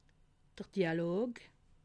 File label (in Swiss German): "dialog", alsace